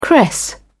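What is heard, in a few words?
"cress", British English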